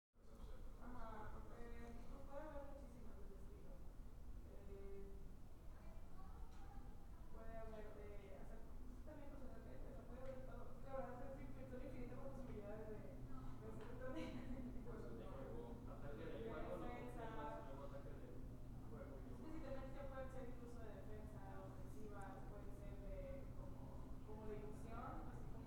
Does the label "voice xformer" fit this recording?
No